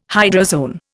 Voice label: "hydrozoan", Indian

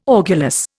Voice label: "orgulous", Indian

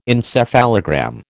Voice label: "encephalogram", American

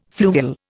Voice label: "fluvial", Indian

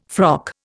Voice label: "frock", Indian

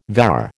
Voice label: "vair", American

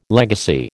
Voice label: "legacy", American

